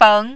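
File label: "beng" tone 2, Mandarin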